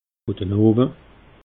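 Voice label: "guetenowe", Alsatian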